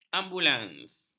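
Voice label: "ambulans", Malay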